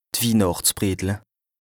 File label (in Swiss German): "d'Wihnàchtsbredle", Alsace, BAS RHIN; bredle de Noël; lexique indispensable